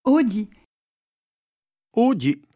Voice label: "oghji", Gravona (sud); Le moment

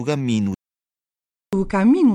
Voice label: "camminu", nord et sud

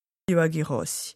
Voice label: "bachi rossi", Moita Verde (nord)

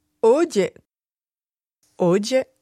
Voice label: "oghje", Moita Verde (nord)

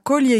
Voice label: "coglie", Moita Verde (nord)

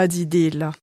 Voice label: "zitella", Moita Verde (nord)

